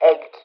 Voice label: LoFi Words, LoFi Vocals